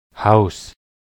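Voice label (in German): "haus", German; de; tdeedu; klettres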